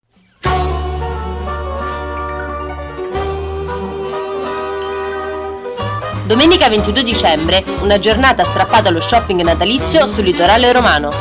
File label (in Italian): Spot